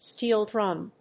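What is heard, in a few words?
"steel drum", English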